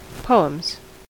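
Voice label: "poems", US English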